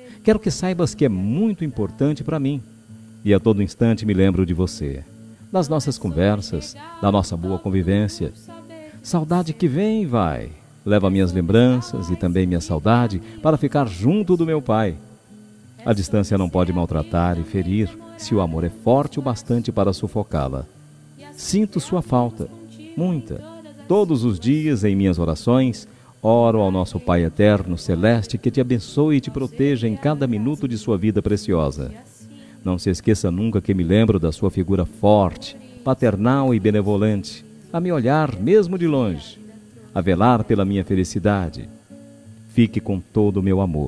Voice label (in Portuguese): ANIV. PAI DISTANTE, telemensagem aniversario pai